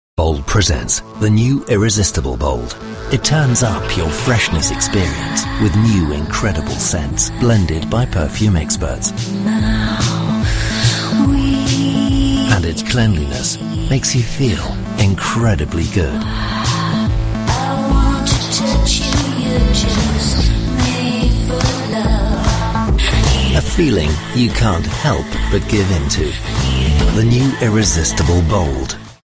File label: voiceover native speaker uk british commercial; englischer sprecher; bold